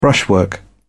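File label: "brushwork", English, British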